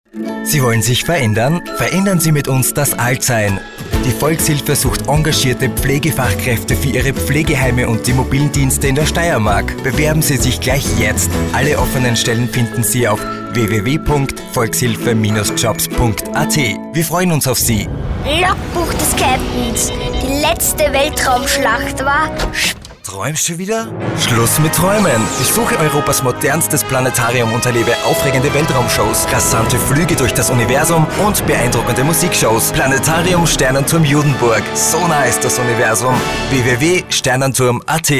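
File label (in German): Showreel, männliche Sprecher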